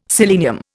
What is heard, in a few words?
"selenium", Indian